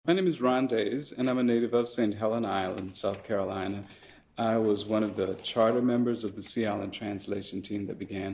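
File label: USA South Carolina Sea Islands Gullah Southern Male 40s Immersion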